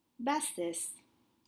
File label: "bassesse", French; lowness